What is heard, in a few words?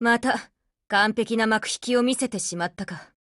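WIN Japanese, Voice; GF Alarm; APC556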